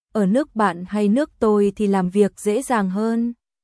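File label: Vietnamese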